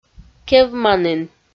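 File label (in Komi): "кевманін", Komi, female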